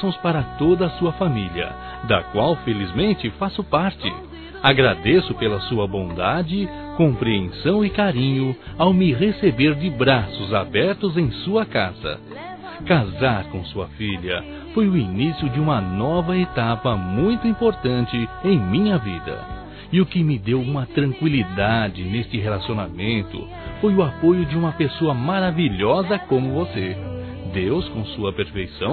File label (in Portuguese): Sogra Masculino, Telemensagens Dia das Mães; Telemensagens 2017